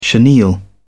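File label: "chenille", British English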